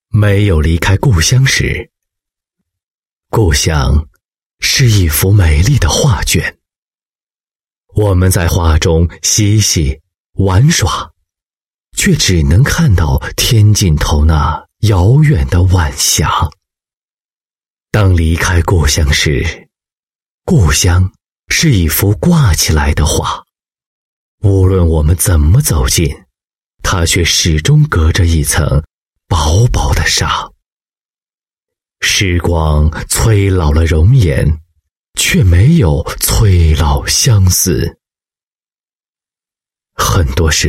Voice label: 男 专题 故乡 深情, 国语男声配音; 大气专题

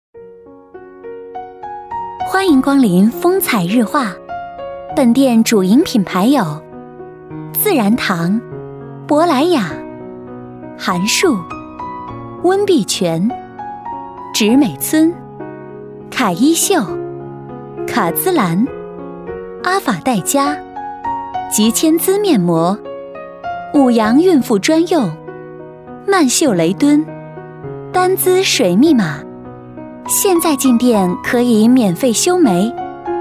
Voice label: 女 促销 加音乐, 国语女声配音; 风采日化

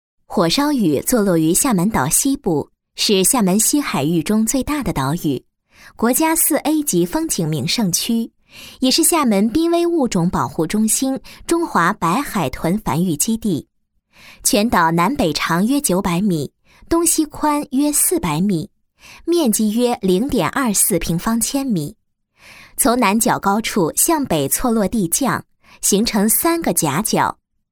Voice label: 女19 专题 解说 党政 风景 地理, 国语女声配音; 火烧屿风景名胜

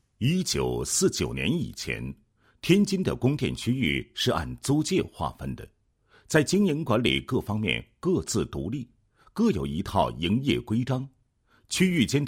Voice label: 男 解说词; 用电安全时间轴解说词

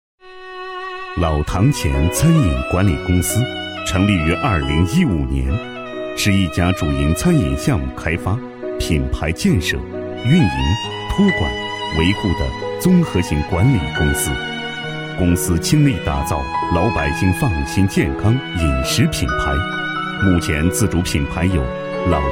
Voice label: 男, 餐饮企业宣传; 老塘前的红烧肉

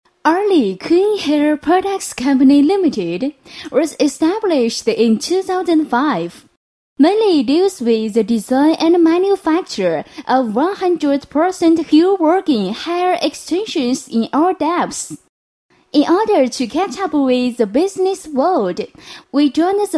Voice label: 英女, 英文专题; 广州批发

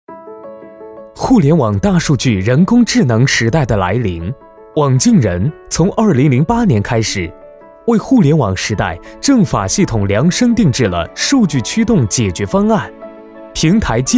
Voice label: 男 专题 科技感

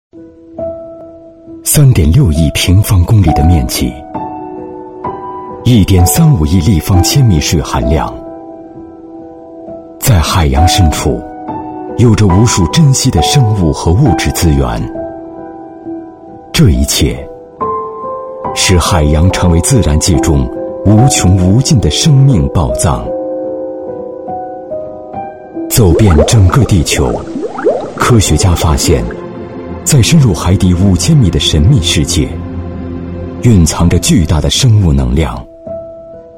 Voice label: 男, 广告; 深海美容

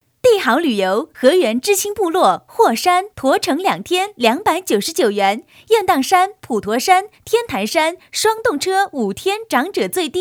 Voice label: 女, 广告, 旅游; 帝豪旅游

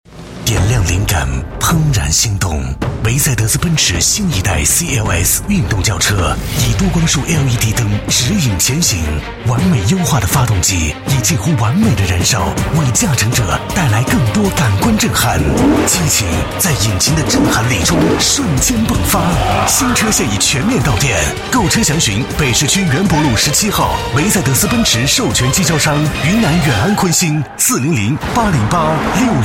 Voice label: 男 广告 科技 磁性 品质 大气 成熟, 国语男声配音; 梅赛德斯奔驰CLS

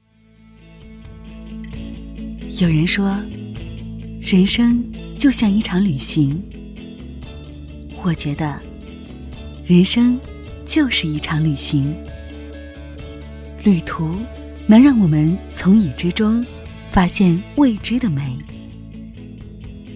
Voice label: 女 品质广告; 桂林旅游局

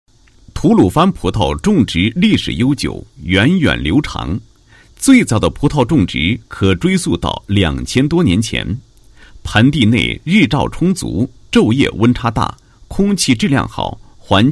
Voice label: 男 解说; 吐鲁番葡萄品种介绍

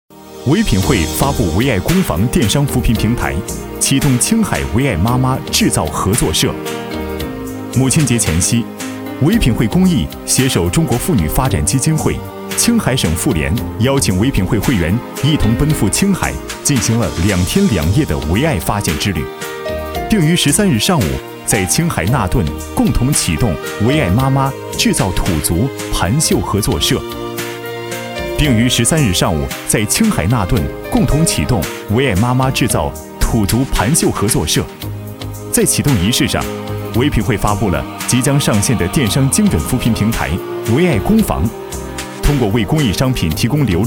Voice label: 男 新闻发布会 轻松语气

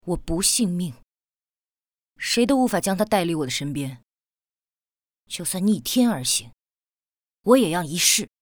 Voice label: 模仿, 男, 酷

